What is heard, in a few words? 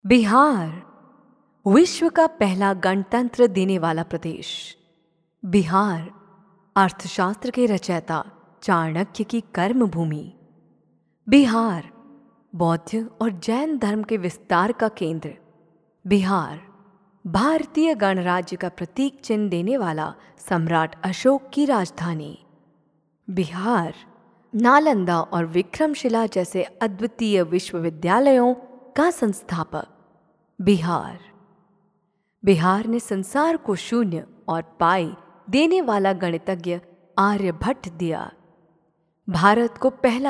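documentary hindi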